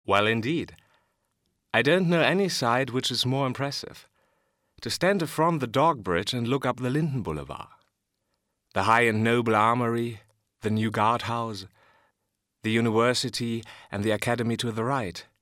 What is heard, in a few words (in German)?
audioguide englisch